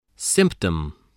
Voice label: "symptom", English